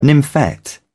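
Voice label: "nymphet", English, British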